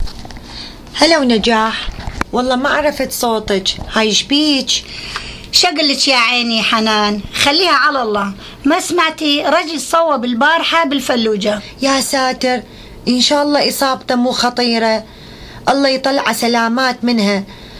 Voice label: dialogue between 2 friends about accident, Iraqi dialect